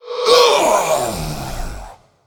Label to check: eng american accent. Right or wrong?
Wrong